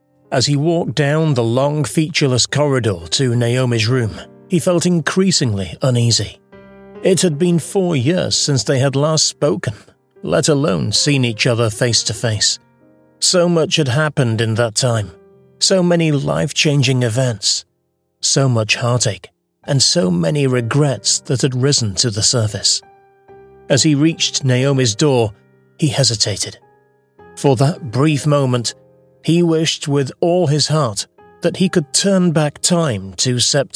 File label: audiobook